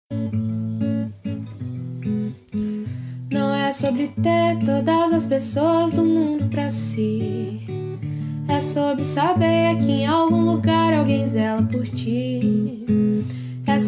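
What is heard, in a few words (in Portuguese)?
birthday PAI female, telemensagem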